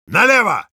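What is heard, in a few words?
Heavy head left, Russian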